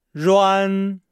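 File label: "ruan", Chinese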